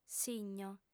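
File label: "signo", lingua sarda, female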